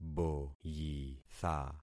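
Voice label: "boyitha", concatenated syllables; Affixing experiment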